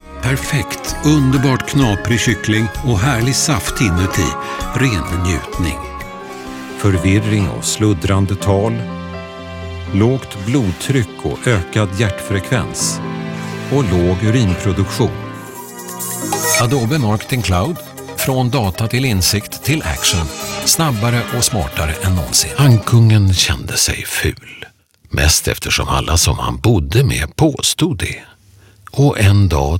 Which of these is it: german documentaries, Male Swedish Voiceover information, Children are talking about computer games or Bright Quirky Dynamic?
Male Swedish Voiceover information